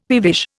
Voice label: "peevish", Indian; Dictionary

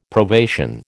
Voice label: "probation", American

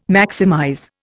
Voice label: "maximize", Indian

